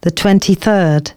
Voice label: announcements female